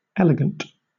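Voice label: "elegant", British English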